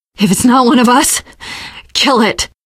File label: not one of us, teengirl; survivor voice